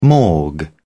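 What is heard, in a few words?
"morgue", British English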